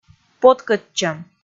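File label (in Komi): "поткӧдчӧм" Komi, female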